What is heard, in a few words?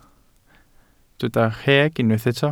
"rheg", Welsh